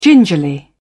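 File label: "gingerly", English, British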